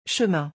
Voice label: "chemin", French